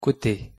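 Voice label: pronunciation "cote", French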